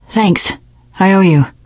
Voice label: thanks, teen girl; survivor voice